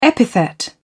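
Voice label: "epithet", British English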